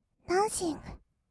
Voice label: ダンシング, kawaii voice; 音ゲー

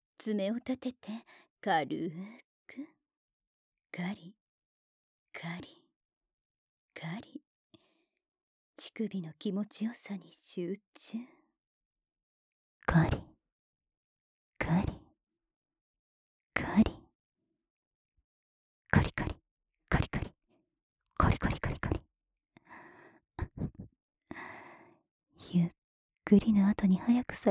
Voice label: カリカリ ランダム, 低音 お姉さん, ループ音声; 乳首オナ指示でカリカリタイム

